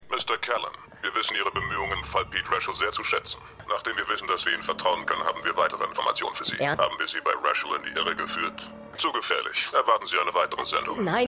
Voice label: Verzerrte Stimme; Stimmproben

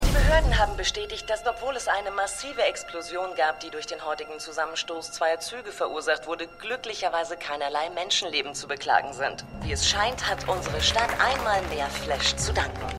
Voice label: Nachrichtensprecherin; The Flash

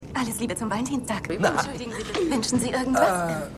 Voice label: Flugbegleiterin; Valentinstag

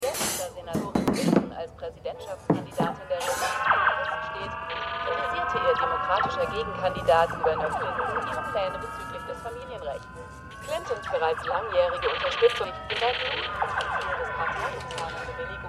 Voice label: Nachrichtensprecherin; For All Mankind

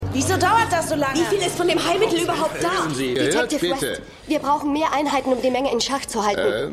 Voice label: Meta Frau Polizistin, Stimmproben; The Flash

